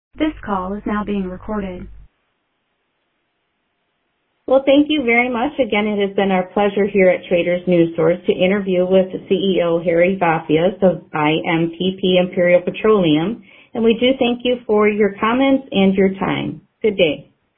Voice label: Voicemail